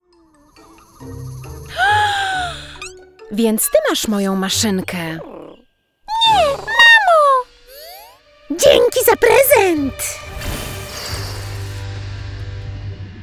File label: Cartoon All 4 voices, female polish voice; Plum The Super Witch